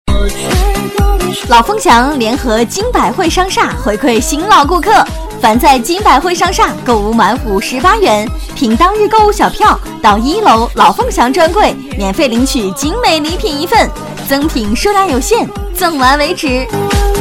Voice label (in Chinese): 女 促销; 老凤祥金百汇